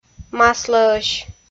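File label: "маслӧӧсь", Komi, female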